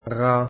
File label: arabic alphabet ghaa